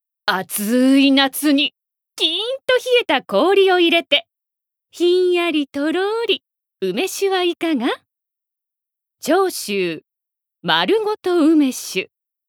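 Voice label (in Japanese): NA, 声優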